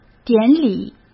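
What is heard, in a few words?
"典礼", Mandarin Chinese; hsk; chinese-flashcards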